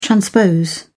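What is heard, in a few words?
"transpose", British English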